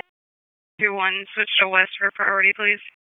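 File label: Raleigh Police Southeast District Dispatch, p25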